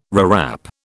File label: "rewrap", British